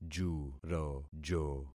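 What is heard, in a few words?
"jurojo", concatenated syllables; Affixing experiment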